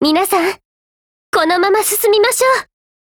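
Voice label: ATTACK, Japanese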